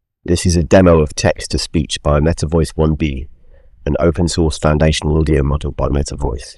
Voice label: synth This is a demo of text to, metavoice; camenduru